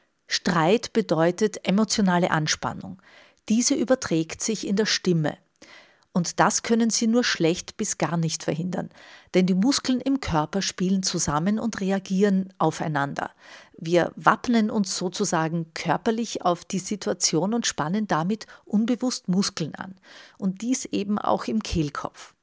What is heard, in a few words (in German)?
streitstimmen